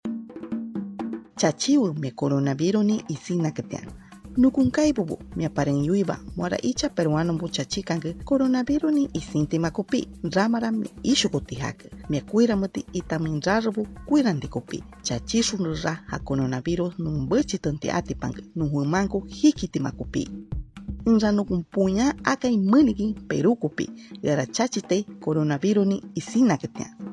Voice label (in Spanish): shipibo konibo, spot de radio; vacunate para protegerte del coronavirus; vacuna contra el coronavirus